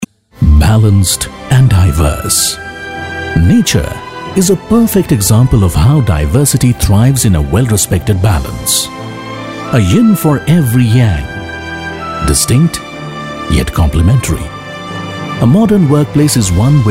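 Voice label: English voice over Sample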